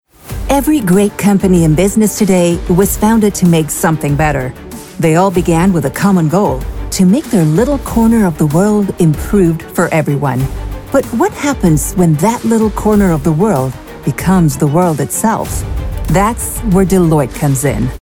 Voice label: Inspirational, english narration voice over